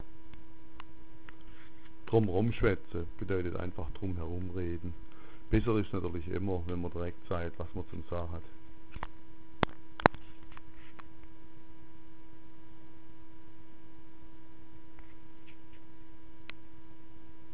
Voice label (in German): dromrom, schwaebisch